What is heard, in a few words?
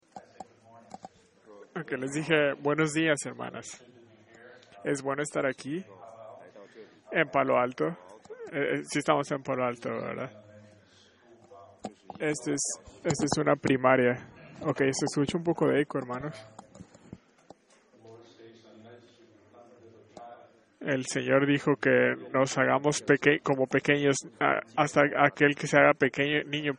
Spanish